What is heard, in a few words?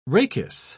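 "rachis", British English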